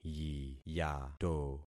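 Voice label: "yiyato", concatenated syllables; Affixing experiment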